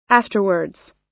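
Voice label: "afterwards", American English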